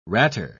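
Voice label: "ratter", British English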